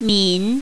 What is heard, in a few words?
"min3", mandarin, female